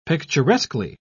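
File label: "picturesquely", British English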